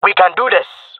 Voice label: round losing, jungle male; player vo; Counter Strike Model Pack; CS:GO